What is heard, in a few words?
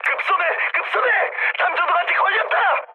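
Korean searchlite mood high; War Thunder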